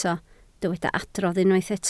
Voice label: "adrodd", Welsh